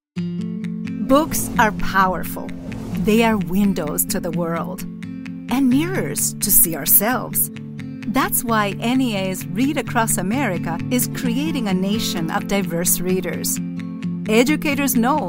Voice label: Hispanic Accent; Freedom To Read; National Education Association